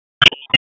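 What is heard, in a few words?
FIRE Operations, p25; FIRE OPS 1; wake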